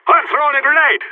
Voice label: grenade, radio